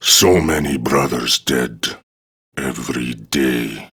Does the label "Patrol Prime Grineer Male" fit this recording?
Yes